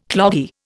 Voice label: "cloggy", Indian

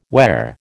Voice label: "where", American

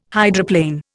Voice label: "hydroplane", Indian

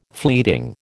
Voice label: "fleeting", American

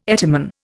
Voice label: "etymon", Indian

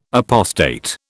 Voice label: "apostate", British

